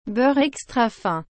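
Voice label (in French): "beurre extra fin", French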